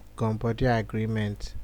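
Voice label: "gumbody agreement", Nigerian Pidgin, male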